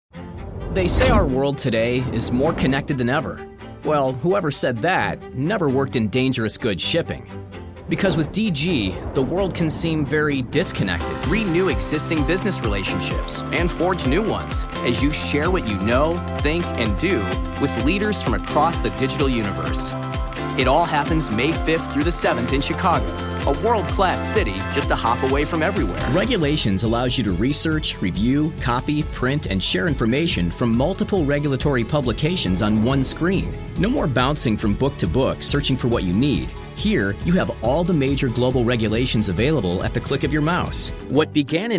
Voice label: Narration